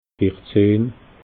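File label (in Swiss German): "vierzehn", Alsatian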